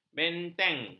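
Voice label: "benteng", Malay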